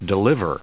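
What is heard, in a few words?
"deliver", US English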